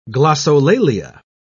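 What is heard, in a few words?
"glossolalia", English, British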